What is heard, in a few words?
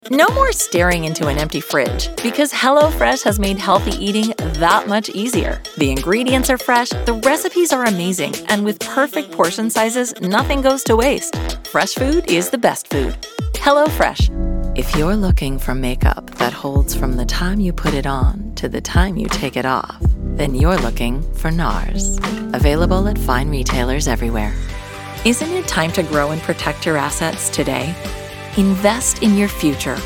Commercial